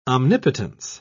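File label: "omnipotence", English, British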